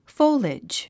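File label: "foliage", English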